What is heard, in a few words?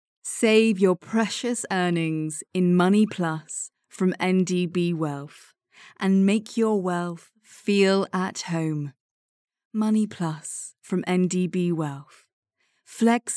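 British English, female, voice over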